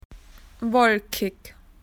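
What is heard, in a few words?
"cloudy", Australian English; PictureDictionary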